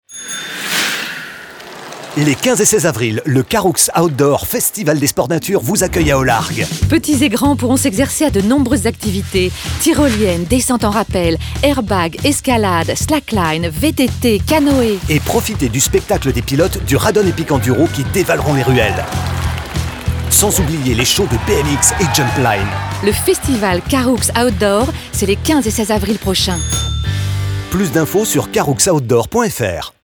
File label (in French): spot radio; velo caroux; fb herault du 4 au 14 avril